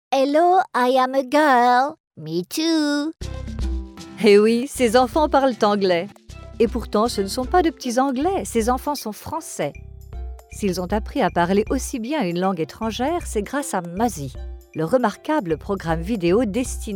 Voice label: French